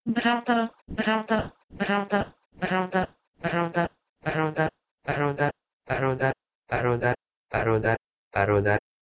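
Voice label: Proto-Indo-European bhrater to Persian barodar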